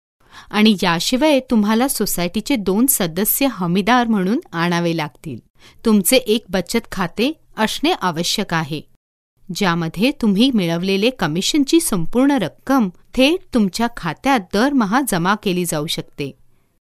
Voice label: MARATHI FEMALE

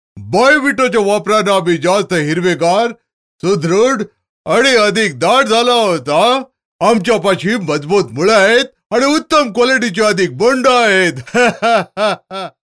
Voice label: MARATHI MALE

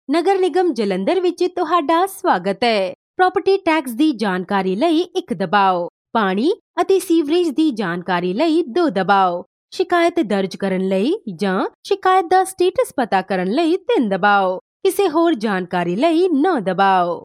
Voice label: Punjabi female

